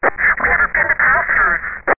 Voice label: Chatter